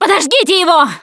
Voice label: battlecry tank, russian, Survivor Voice Producer

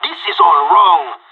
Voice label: scared emote, pirate; Counter-Strike Global Offensive

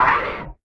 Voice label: feral hurt vox; Beyond the Sword; Units

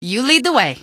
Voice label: lead the way, female; npc; Garry's Mod; hl2

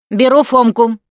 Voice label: melee weapons, russian, Survivor Voice Producer

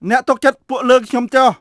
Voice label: Khmer Order; Beyond the Sword; Units

